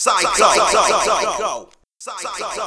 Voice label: Pscho Delay, MCs, Hip-Hop; Hydroponic Hip-Hop